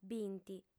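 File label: "binti", female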